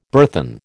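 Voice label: "burthen", American English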